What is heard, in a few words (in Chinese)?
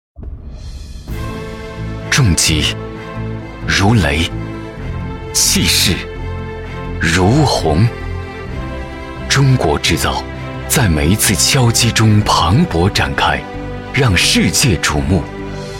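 男505 大气宣传, 男505年轻磁性男声配音; 天齐集团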